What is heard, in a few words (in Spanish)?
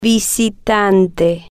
"visitante", spanish